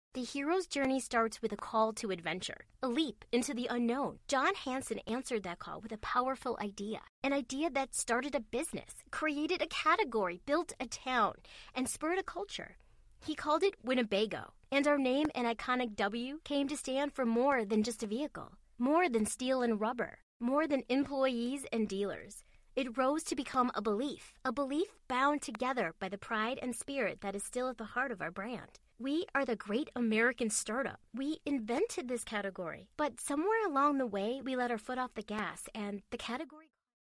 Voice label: female, Los Angeles